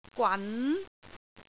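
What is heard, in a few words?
"gwan2", Cantonese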